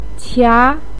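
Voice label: "qia2", Mandarin Chinese, pinyin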